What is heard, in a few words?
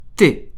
French alphabet t